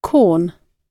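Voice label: "corn", British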